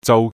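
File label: "zau3", Cantonese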